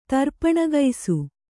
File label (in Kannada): "ತರ್ಪಣಗೈಸು", Kannada